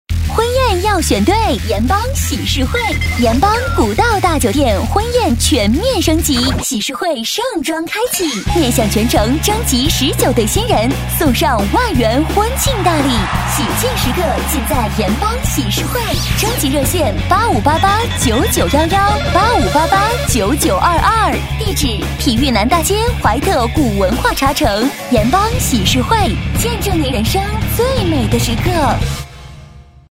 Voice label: 女 广告; 盐帮喜事会

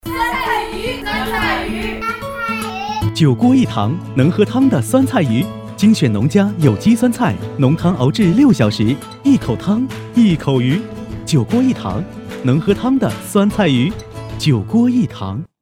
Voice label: 男 广告; 九锅一堂

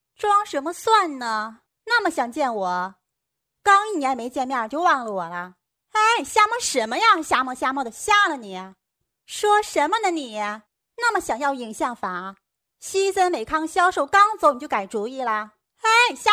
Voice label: 女25-模仿, 女; 徐帆

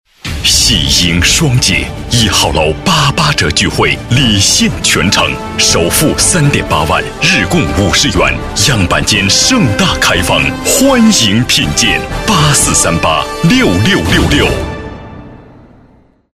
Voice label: 男 广告

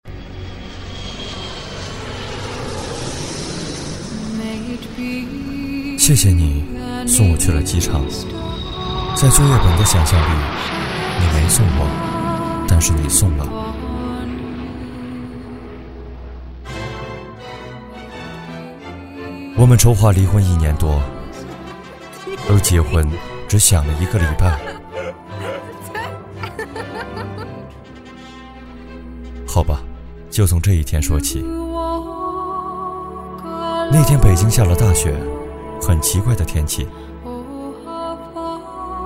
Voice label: 男95-旁白-柔情诉说

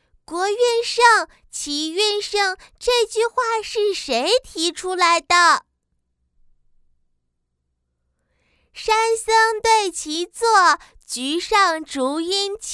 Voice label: 女-模仿-童声

